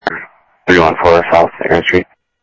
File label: South Scottsdale Sheriff, scanner audio; MCSO - EAST Sheriff-EastCnty